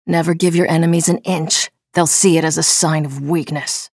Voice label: chatter neutral; nyx

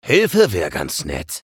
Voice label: vo chatter negative; eisen; respawn

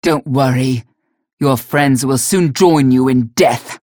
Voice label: chatter neutral; galena; unholy paladin

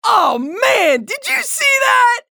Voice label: chatter positive; anarki; frag

